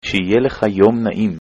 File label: nice day, hebrew